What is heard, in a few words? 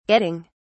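"getting", British English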